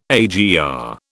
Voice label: "AGR", British